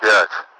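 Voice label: Russian speech, Mi-8MT; five; DCS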